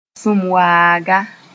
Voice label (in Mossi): "sũmwaaga", Moore